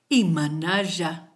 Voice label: how are you, kichwa